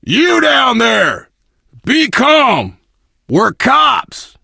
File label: initial meeting, survivor voice biker